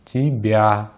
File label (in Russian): "тебя", Russian; easypronunciation